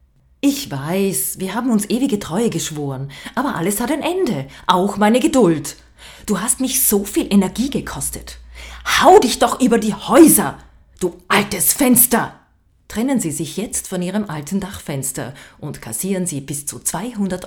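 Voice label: german Austrian female narration; voice demos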